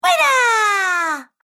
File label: Cartoon Girl Winner, cartoon voice; Cartoon Voice Sound Effects